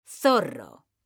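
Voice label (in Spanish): "zorro", Spanish, female; mekanta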